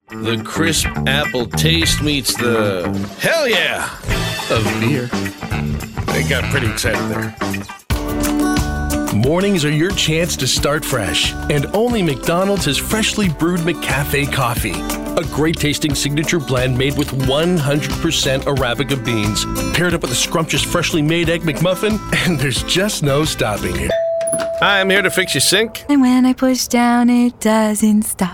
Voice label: Commercial; TaDa